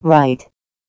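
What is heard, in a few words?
"right", English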